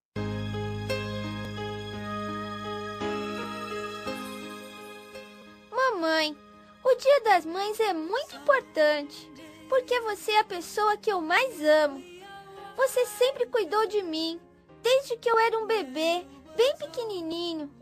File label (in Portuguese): dm voz infantil mas mae, voz masculina infantil; dia das maes para minha mae